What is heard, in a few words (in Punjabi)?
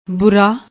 "boora", Punjabi